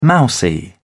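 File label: "mousy", British English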